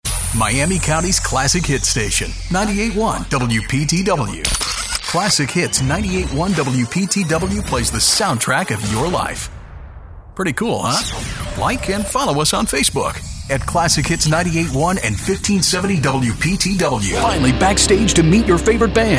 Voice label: Radio Imaging

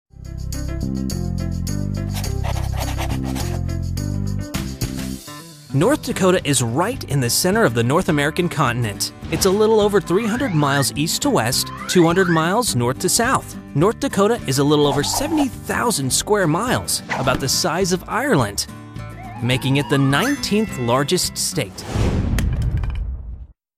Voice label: Narrator for Kids

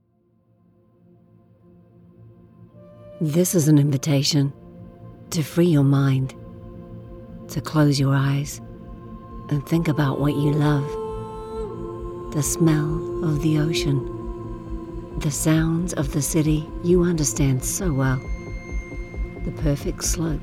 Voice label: VO NZ soft gentle surf skate snow with music

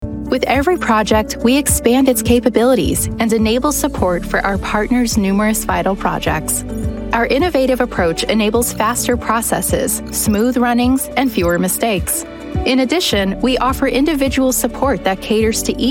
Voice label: Explainer

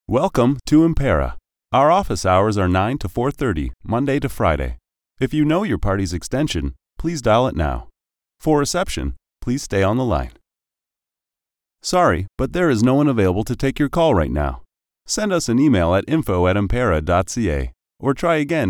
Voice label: IVR GREETING EXIT

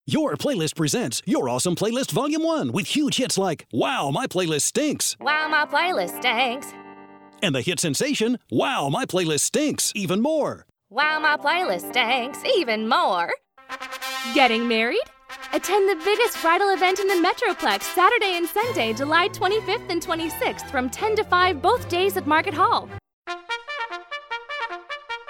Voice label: Radio Spots

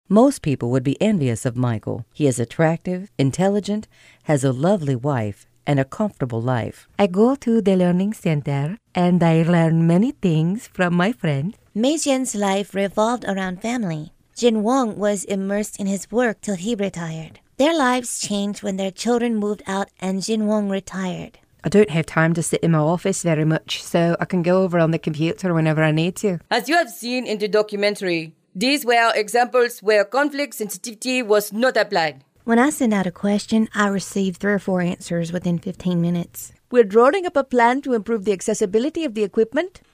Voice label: US and International Dialects

